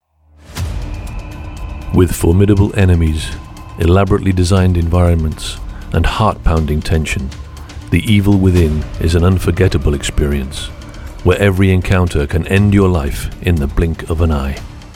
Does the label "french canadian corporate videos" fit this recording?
No